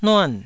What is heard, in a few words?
"nuan4", Taiwanese